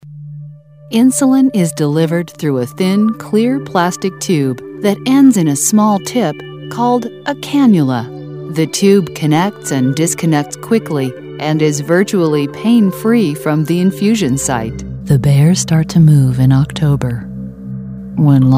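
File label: English American female voice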